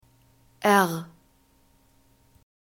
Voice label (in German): "r", German alphabet